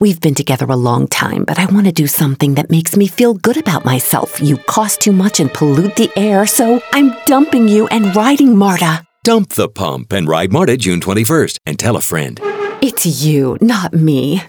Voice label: radio English